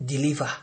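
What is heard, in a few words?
"deliver", Nigerian Pidgin, male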